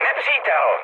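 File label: enemy detected, Czech, mood med; War Thunder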